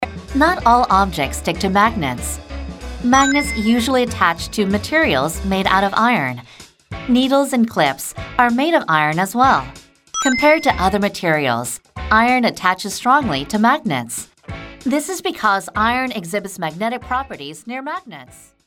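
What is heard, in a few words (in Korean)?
미국영어 신뢰/묵직; Voice actor sample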